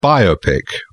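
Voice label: "biopic", British English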